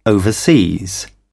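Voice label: "overseas", English, British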